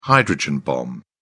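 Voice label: "hydrogen bomb", British English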